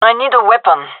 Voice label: request weapon, gendarmerie fem; vo

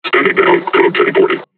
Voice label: taunt, combine charger; Half Life Alyx

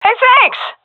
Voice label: thankful, vo fem; agents; Source Model Packs; Counter Strike Model Pack; CS2

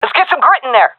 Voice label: round losing, seal fem; vo; Counter Strike Model Pack; CS:GO

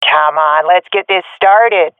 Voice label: round start, swat fem; Counter Strike Model Pack; CS:GO

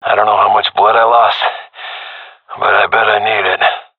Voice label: scared, seal diver; vo; agents; Source Model Packs; Counter Strike Model Pack; CS2

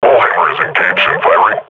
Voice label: firing, combine grunt; Half Life Model Pack

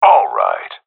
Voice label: cheer; Counter Strike Model Pack; CS:GO; seal diver

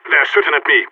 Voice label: radio taking fire; Counter Strike; CS:GO; phoenix

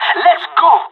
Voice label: radio lets go, pirate

